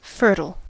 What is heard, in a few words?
"fertile", us